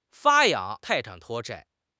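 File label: "发扬", Chinese; HSK6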